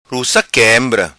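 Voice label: "Ruca Quembra", patua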